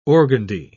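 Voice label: "organdy", British English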